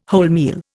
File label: "wholemeal", Indian